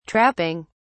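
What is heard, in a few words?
"trapping", British English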